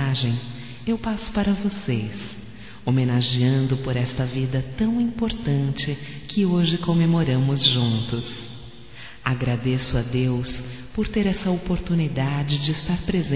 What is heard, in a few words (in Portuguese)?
Parabéns Pais Aniversário Criança Feminino, Telemensagens, Só Voz; Telemensagens 2017